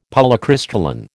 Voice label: "Polycrystalline", American

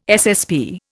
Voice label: "SSP", Indian

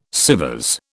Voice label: "SÊvres", British

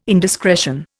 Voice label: "indiscretion", Indian

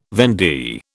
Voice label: "vendee", British

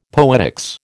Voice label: "poetics", American

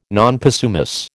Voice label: "non possumus", American

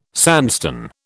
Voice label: "sandstone", British

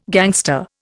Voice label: "gangster", Indian

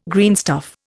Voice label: "greenstuff", Indian

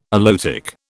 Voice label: "aloetic", British

